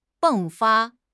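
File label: "迸发", Mandarin Chinese; HSK; HSK6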